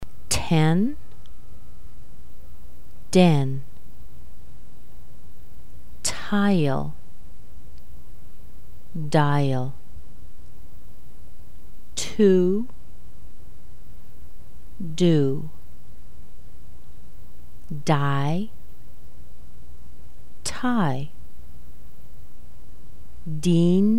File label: T D words slow, American accent